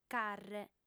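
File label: "carre", female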